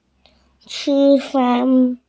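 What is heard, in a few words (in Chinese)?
"qu1fen1", Mandarin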